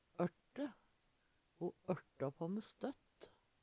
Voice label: "ørte", Numedalsmål; Ho ørta på me støtt.; Verb

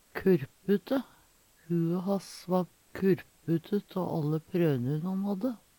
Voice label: kurpute, Numedalsmål

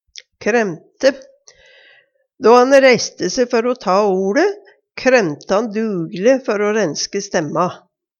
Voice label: kremte, Numedalsmål

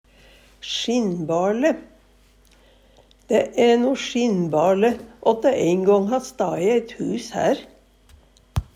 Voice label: "sjinnbarle", Numedalsmål; Det æ no sjinnbarle at det ein gong ha stae eit hus her.; Adverb

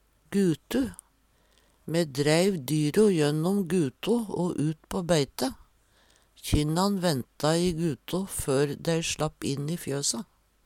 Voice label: gutu, Numedalsmål; Me dreiv dyro jennom guto o ut på beite. Kjynnan venta i guto før sLapp inn i fjøse.

